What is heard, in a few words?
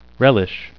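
"relish", English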